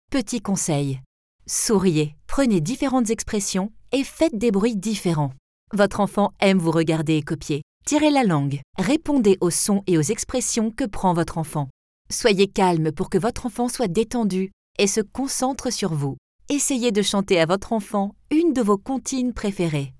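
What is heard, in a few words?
French, female, explainer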